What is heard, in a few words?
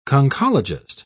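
"conchologist", British English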